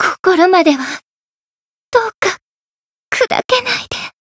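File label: Voice retire Japanese; radica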